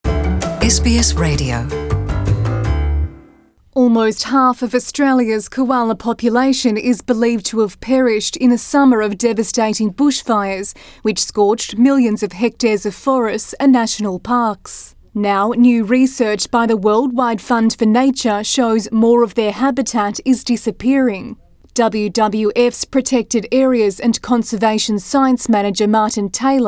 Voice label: english